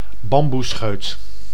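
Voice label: "bamboescheut", Dutch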